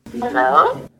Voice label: phone call - hello